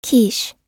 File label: "quiche", US